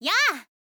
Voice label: "yaa", girl